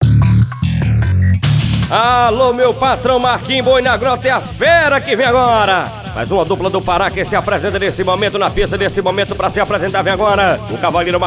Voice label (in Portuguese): Locutor